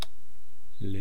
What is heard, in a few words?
"li", east cree syllabics